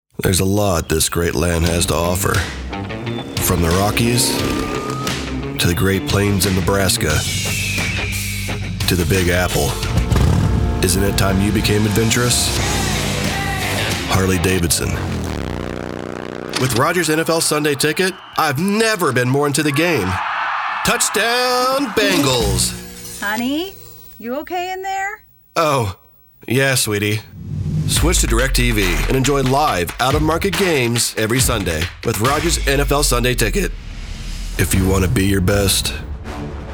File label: Commercial